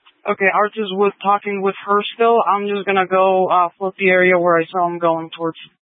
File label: Sheriff Dispatch, scanner; Mesa County